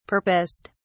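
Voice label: "purposed", British English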